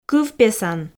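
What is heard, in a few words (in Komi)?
"кывпесан", Komi, female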